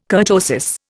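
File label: "kurtosis", Indian English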